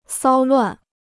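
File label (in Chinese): "骚乱" Chinese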